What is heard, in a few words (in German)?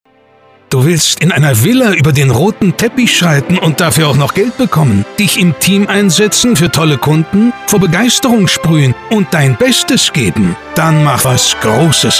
radiospot; die vollblutwerber